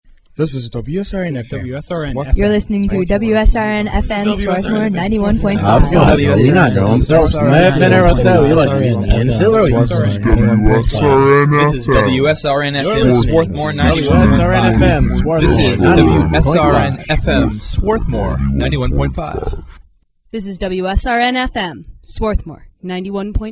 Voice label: vintage call signs